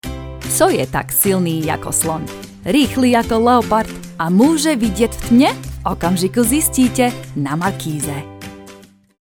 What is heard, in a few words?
czech